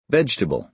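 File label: "vegetable", American English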